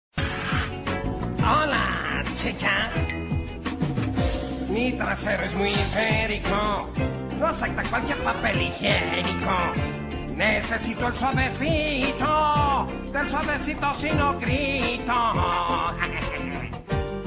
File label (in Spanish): hombre diciendo hola